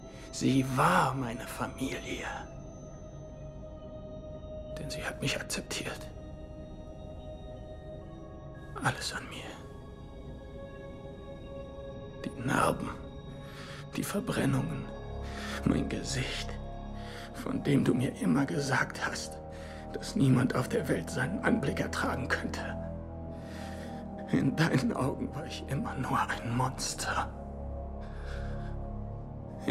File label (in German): mysteriös ernst; Batwoman